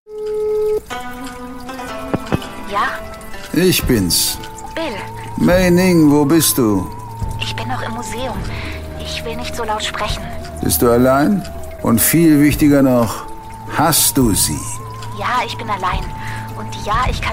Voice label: Hoerspiel; Tiao Tiao

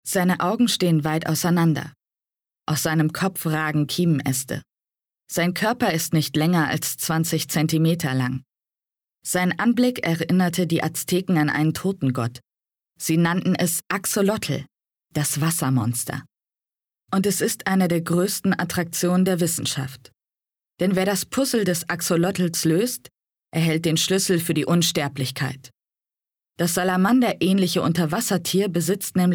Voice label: Dokumentarischer Text

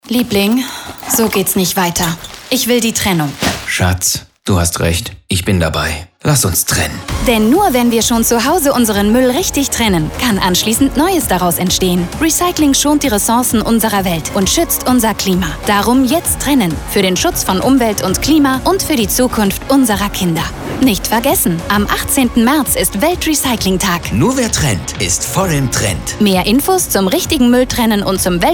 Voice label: Funk Spot; Welt Recyclingtag

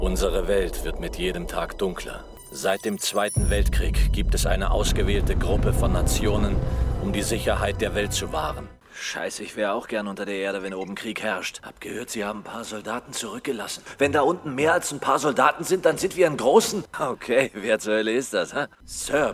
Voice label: Synchron 2 Mix; LOFT VOICES

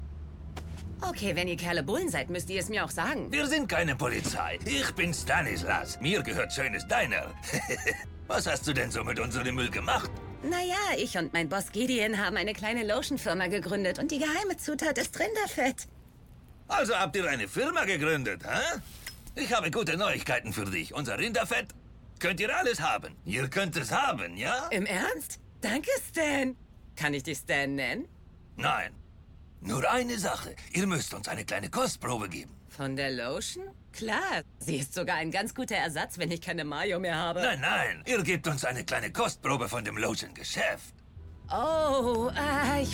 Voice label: DE Synchron

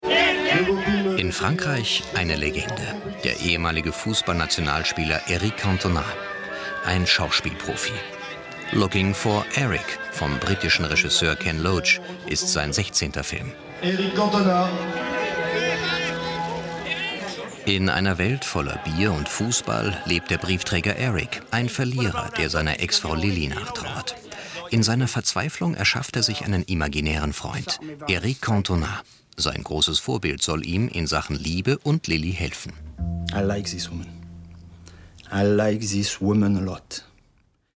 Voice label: kommentar kino magazin; srf 5

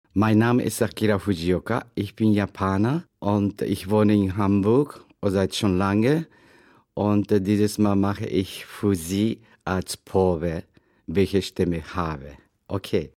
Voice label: japanisch

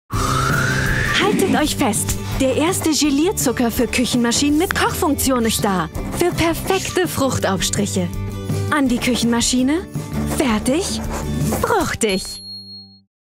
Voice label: DE TVC Diamant Gelierzucker

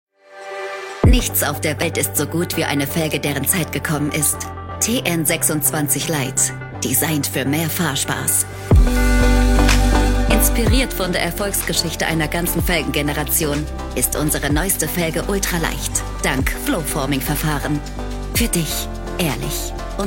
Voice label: Werbespot; TOMASON

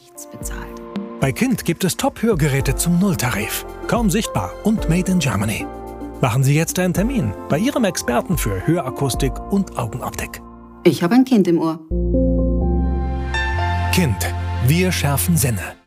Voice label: Kind Hoergeraete

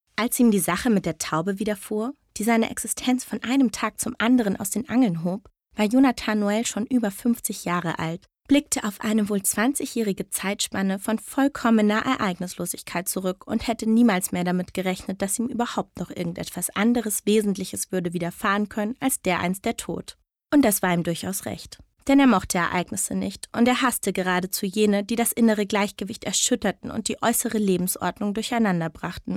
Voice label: Erzaehlung; LOFT VOICES

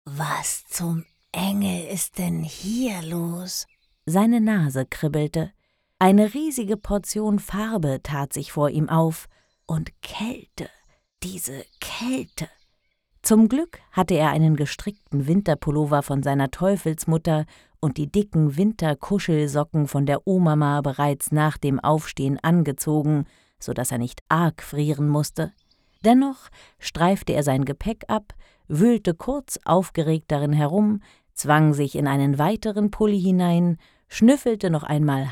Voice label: Hoerbeispiel Kinderhoerbuch; LOFT VOICES

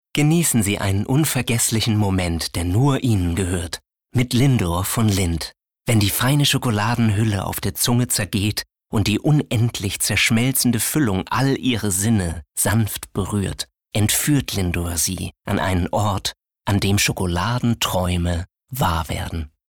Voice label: CASTING Werbung

